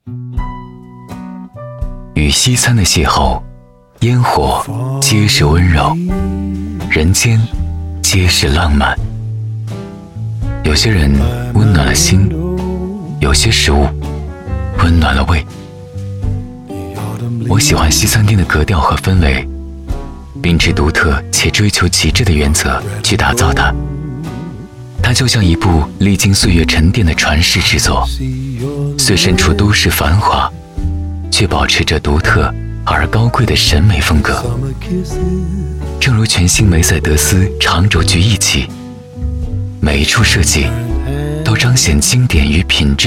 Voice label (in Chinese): 男 质感广告 梅赛德斯奔驰